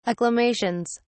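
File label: "acclamations", British English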